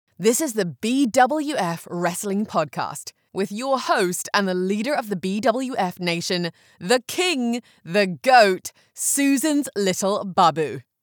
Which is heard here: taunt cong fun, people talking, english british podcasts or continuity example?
english british podcasts